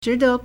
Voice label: "zhi2de", Chinese

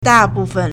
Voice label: "da4 bu4 fen1", Chinese

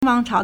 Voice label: "wang2chao2", Chinese

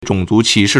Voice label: "zhong3 zu2 qi2 shi4", Chinese

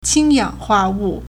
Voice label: "qing1 yang3 hua4 wu4", Chinese

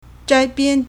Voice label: "zhai1bian4", Chinese

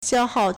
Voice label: "xiao1hao4", Chinese

